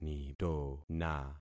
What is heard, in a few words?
"nitona", concat syllables; Affixing experiment